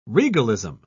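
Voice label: "regalism", British English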